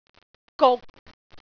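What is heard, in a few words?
"guk6", Cantonese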